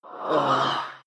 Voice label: anger; dota2; death prophet